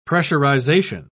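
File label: "pressurisation", British English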